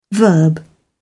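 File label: "verb", British English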